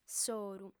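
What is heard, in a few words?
"s'oru", female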